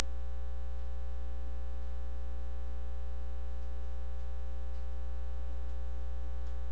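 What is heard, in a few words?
"hold", spoken word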